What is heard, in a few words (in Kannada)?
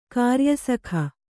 "ಕಾರ್ಯಸಖ", Kannada